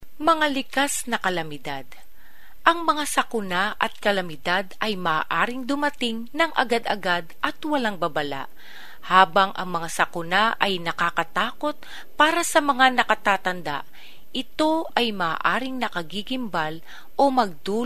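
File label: Fillipino, female, narration; filipino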